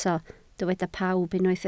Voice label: "pawb", Welsh